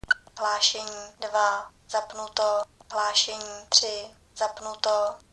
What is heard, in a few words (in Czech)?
automatická hlášení; mluvík